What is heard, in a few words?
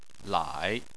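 "lai", Chinese, third tone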